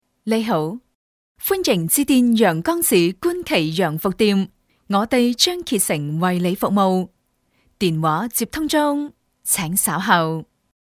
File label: female cantonese voice over upbeat